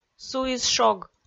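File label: "суис шог", Komi-Zyrian, female; audio dict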